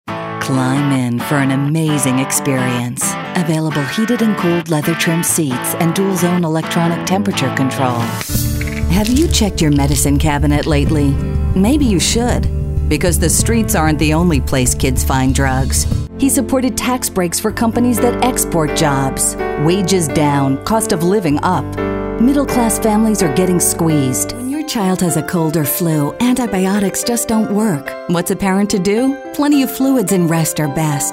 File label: Commercial, women